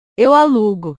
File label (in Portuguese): "eu alugo", portugues